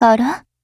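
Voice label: MOOD, Japanese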